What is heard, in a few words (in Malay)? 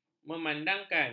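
"memandang", Malay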